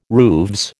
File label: "rooves", American